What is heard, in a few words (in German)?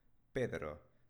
spanische jungennamen pedro